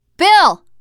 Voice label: name, teen girl; bill